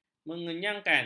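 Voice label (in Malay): "mengenyangkan", Malay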